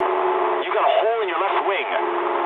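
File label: English left wing mood low; War Thunder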